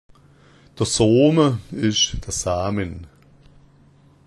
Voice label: "sooma", schwaebisch

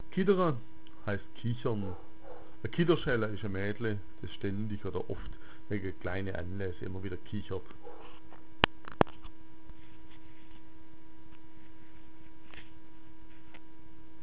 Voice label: "kiddera", schwaebisch

